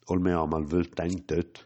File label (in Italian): frasi in bergamasco